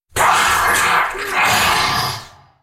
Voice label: Droid dying, character voices english; KhazixDroid; lol; en US